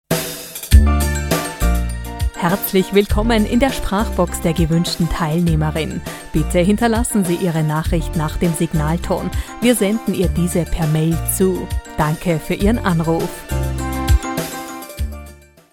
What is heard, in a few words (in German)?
Standard weiblich universal